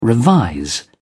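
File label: "revise", British English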